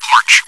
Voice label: porch, radio